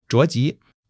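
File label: "zhao2 ji2", Chinese; HSK3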